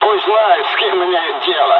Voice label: dialogs russian; War Thunder